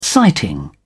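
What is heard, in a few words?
"sighting", British English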